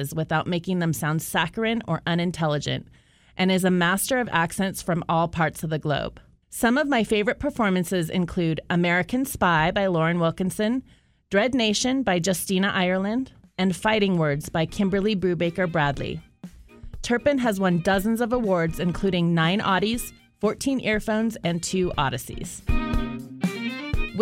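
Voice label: audiobook narration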